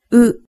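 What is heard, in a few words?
"u", Japanese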